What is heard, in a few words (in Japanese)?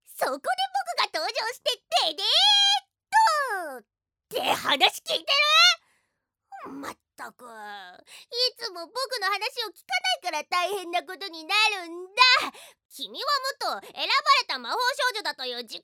アニメ